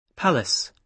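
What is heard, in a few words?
"palace", British English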